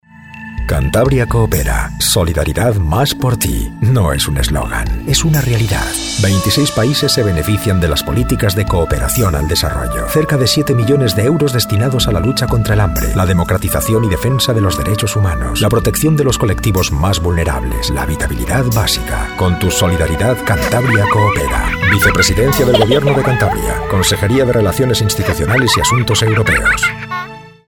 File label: spanish tv shows